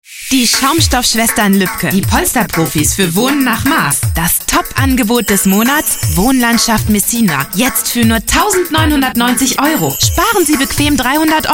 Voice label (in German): Werbung